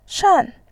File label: "shan4", voice